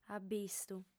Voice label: "abistu", audiofem